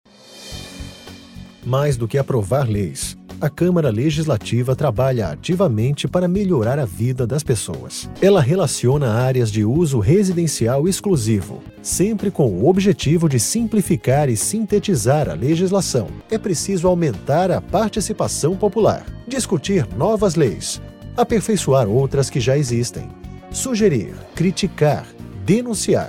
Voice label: Portuguese Brazilian corporate videos

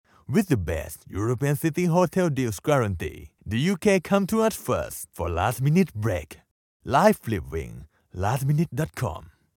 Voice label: english promos

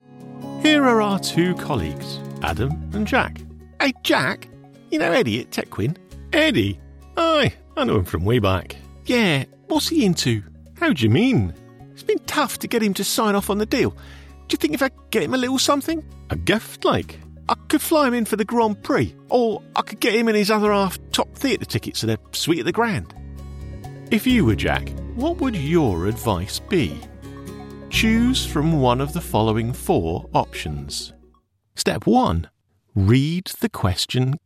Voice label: english british e learning

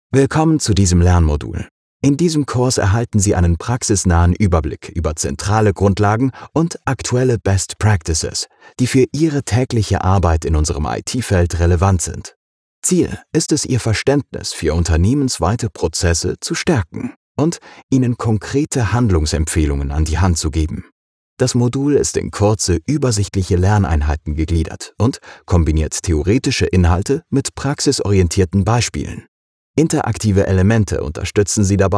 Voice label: german e-learning

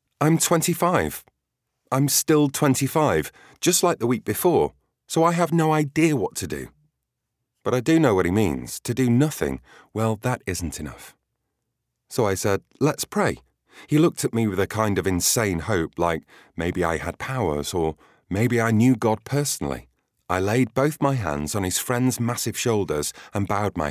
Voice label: english british audiobooks

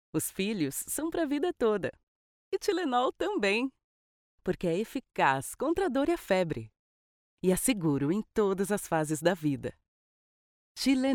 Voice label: portuguese brazilian others

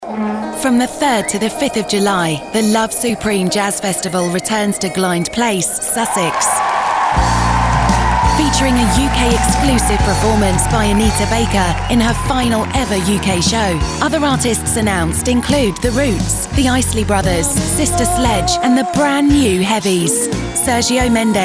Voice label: english british; full time voice actor based out of her personal recording studio

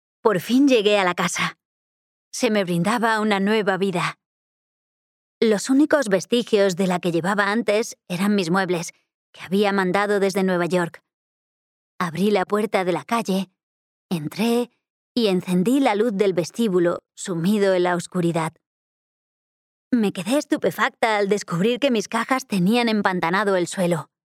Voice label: Spanish narration; actriz de doblaje española

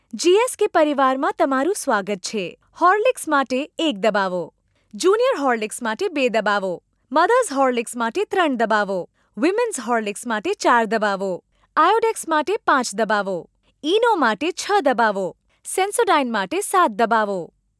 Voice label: gujarati ivr, voice actors; professionelle indische Sprecherin

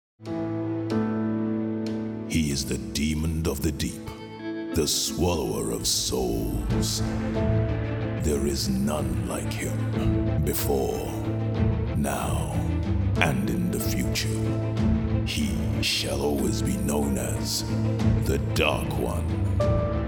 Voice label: english british video games

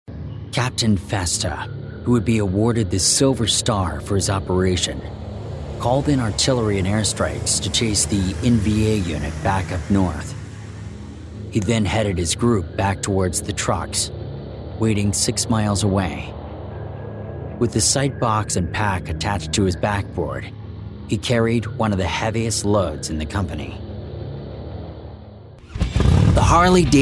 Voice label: english american tv shows

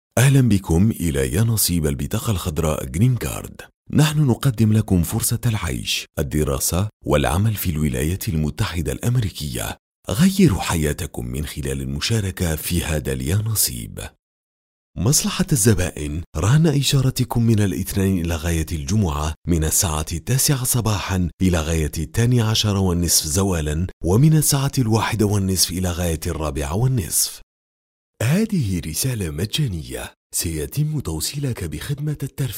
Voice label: Arabic IVR

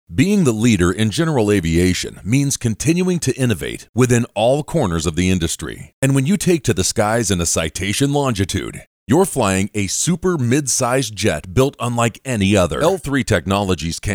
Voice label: english american corporate videos

